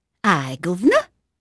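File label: English female, voice; Pirates Of The Caribbean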